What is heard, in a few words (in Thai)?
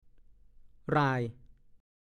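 "หร่าย" ภาษาโคราช; ย่างกราย กรีดกรายไปมา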